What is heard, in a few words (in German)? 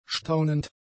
"staunend", German; adjectives